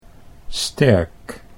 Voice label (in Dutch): "sterk", Dutch